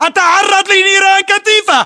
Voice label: inform reloading generic, battlechatter, english